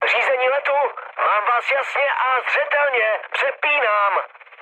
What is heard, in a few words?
chat, speech Czech; War Thunder